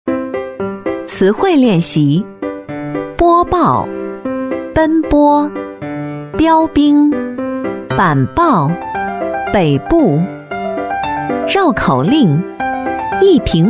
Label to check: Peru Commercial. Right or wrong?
Wrong